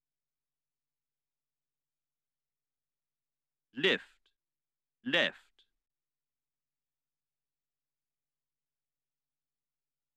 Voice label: English Sounds In Pairs; English Course 2